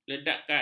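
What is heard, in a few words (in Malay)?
"ledakan", Malay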